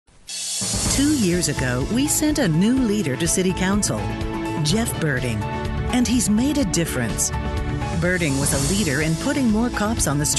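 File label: Political Female, Rich, Solid, Natural; Commercial Voiceover, Political Campaigns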